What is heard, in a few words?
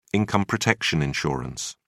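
"income protection insurance", British English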